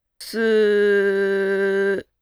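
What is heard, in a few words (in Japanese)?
"す", Japanese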